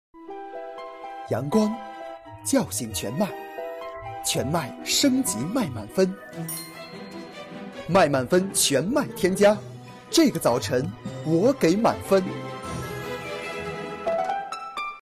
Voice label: 广告类美食

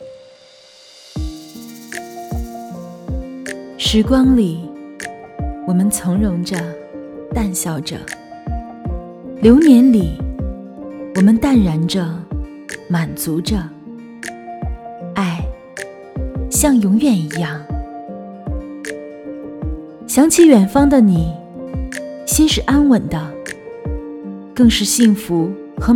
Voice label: 情感类短视频

